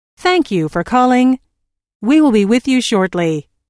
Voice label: Thank You For Calling We Will Be With You Shortly, Telephone prompt; Thank you for calling Your call is important to us - We will answer your call as; Recorded Voice Sound Effects